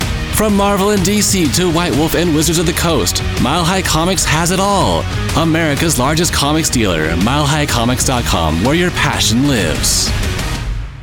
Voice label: Spot; MileHighComics

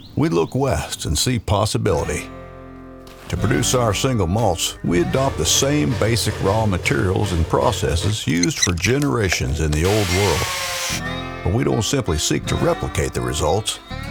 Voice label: Whiskey Ad; Westland

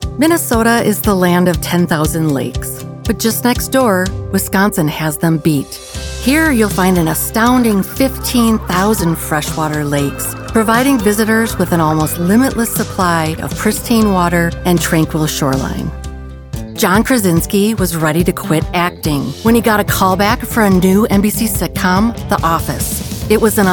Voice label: Narration

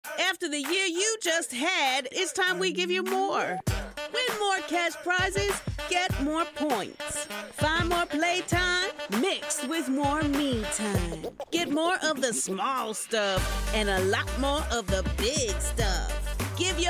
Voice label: LOTTERY VO

